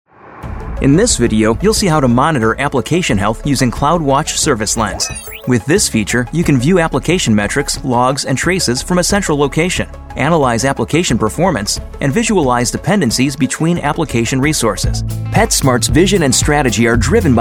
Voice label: eLearning